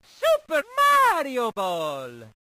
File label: Title Call JP; Mario Pinball